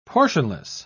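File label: "portionless", British English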